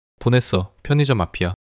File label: neutral